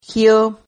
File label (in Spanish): "gio", Silabas